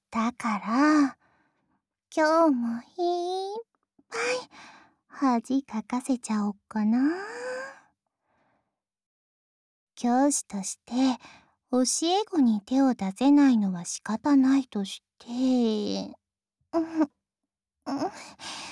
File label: LOVE Whisper; おしえご